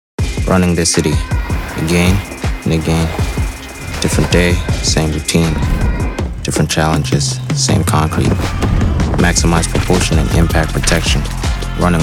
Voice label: male english usa accent commercial